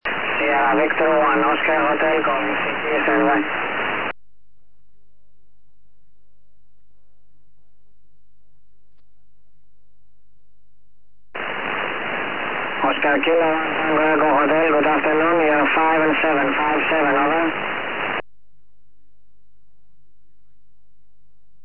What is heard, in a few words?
4m ssb